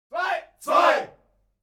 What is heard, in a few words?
human crowd military shout german two; smartsoundfx